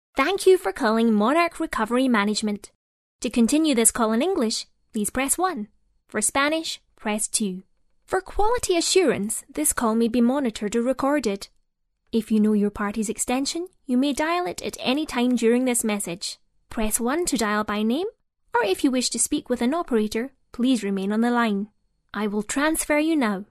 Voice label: female scottish voice over upbeat